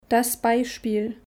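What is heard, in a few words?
"das Beispiel", German; Kapitel 2; Alphabet erste Schritte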